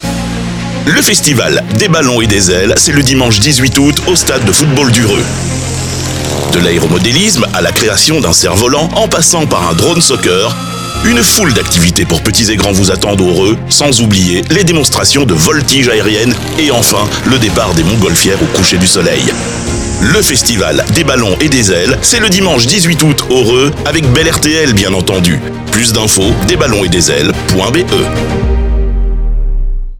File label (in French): Spot radio; BEL RTL